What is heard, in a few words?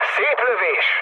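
player killed enemy, mood med, Hungarian; War Thunder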